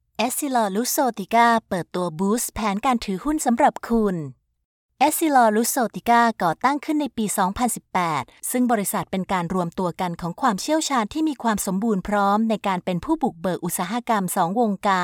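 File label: Thai, female, explainer; voice-over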